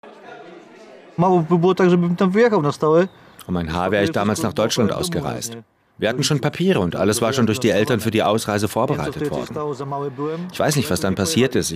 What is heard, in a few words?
Voice over; ZDF